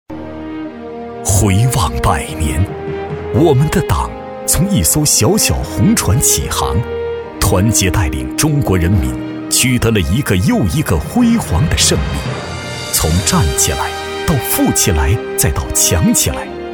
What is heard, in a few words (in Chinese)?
男; 党建; 庆祝建党100周年